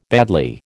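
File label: "badly", American English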